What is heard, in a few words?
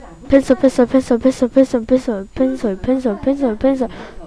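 "pencil", spoken word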